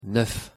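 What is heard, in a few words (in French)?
"neuf" French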